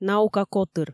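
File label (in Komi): наука котыр, Komi, female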